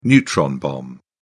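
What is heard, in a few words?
"neutron bomb", British English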